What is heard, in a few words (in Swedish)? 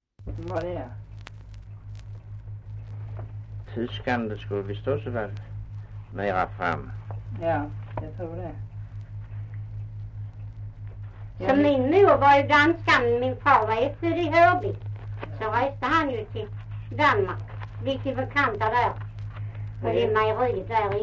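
Östra Ingelstad Skåne, Svenska sagor, dialekter; Folkminnen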